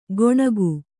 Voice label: "ಕೊಣಗು", Kannada

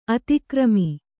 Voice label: "ಅತಿಕರ್ಮ", Kannada

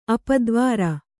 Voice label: "ಅಪದ್ವಾರ", Kannada

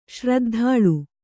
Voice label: "ಶ್ರದ್ಧಾಳು", Kannada

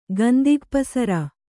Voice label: "ಗಂದಿಗಪಸರ", Kannada

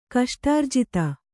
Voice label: "ಕಷ್ಟಾರ್ಜಿತ", kannada

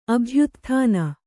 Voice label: "ಅಭ್ಯುತ್ಥಾನ", Kannada

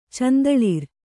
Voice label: "ಚಂದಳಿರ್", Kannada

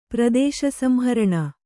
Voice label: "ಪ್ರದೇಶಸಂಹರಣ", kannada

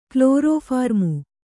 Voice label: "ಕ್ಲೋರೋಫಾರ್ಮು", Kannada

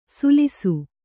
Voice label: "ಸುಲಿಸು", Kannada

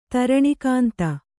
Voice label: "ಧರಣೀಕಂದ", Kannada

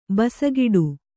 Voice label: "ಬಾಸೆಗೆಡು", Kannada

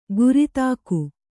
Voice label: "ಗುರಿತಾಕು", Kannada

